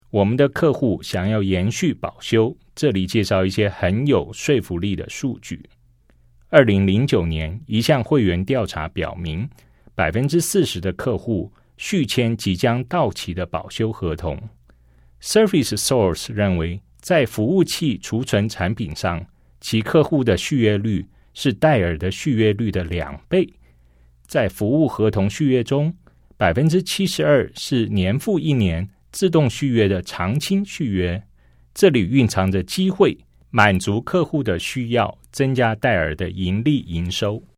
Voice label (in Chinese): CHINESE